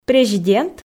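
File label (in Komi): "президент" Komi, female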